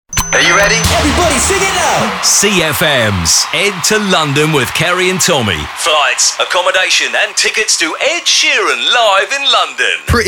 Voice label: PROMO REGISTER SWEEP; SEA ED TO LONDON CT PRE; SP NEXT WEEK